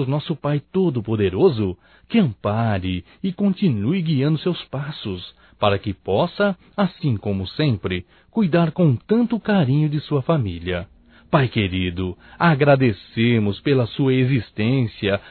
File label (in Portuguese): Aniversário Pai Nós Desejamos Masculino; Aniversarios; Telemensagens 2017